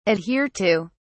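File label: "adhere to", British English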